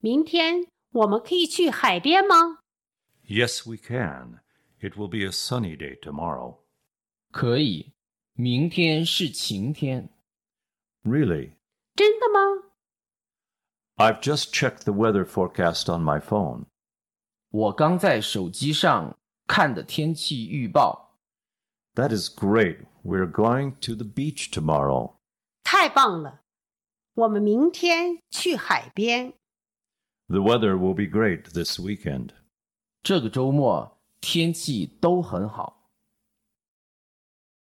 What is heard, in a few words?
Dialog